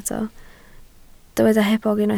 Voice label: "hebog", Welsh